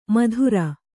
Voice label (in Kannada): "ಮದಿರೆ", Kannada